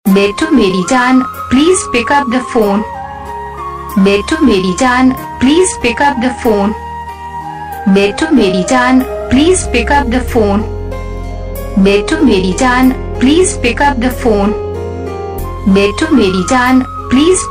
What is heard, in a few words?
meri jaan pick up the phone saying, Ringtones